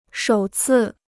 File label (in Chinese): "首次", Chinese